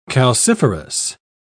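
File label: "calciferous", English, British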